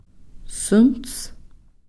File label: "suns", thick